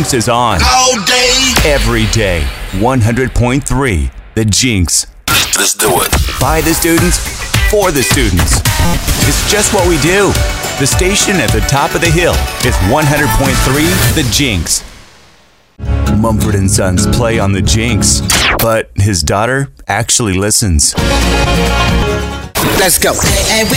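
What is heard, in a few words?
Radio Voice Imaging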